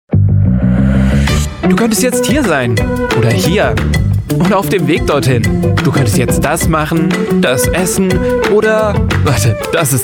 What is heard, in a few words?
german voice over male commercial